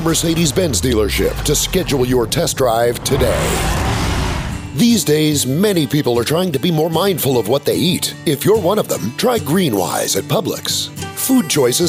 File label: Commercial Voice Over, male